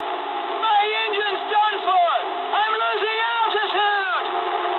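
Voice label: English damaged engine mood high; speech; War Thunder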